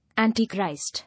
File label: "Antichrist", English